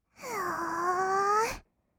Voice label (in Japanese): 「うおーーー」, kawaii voice; 1200 kawaii voice!!; 色々なワンショット